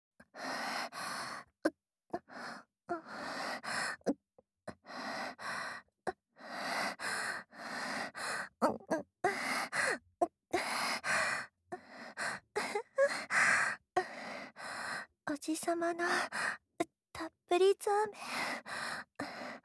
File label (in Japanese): ヒロインボイス